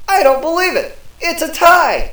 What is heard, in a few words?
Announcer Tie; BREAKIN BATTLE